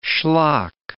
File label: "schlock", English